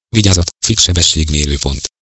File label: Fixed Camera, voice male; Igo 8; HU